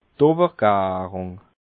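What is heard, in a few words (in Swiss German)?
"owwergarung", Alsatian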